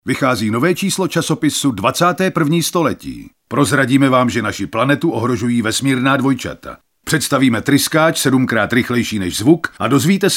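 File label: Male Czech commercial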